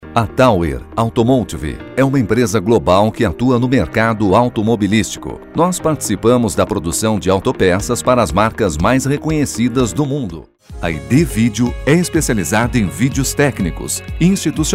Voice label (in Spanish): INSTITUCIONAL